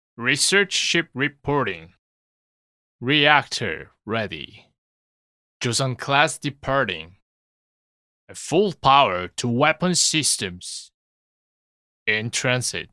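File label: Korean, male, english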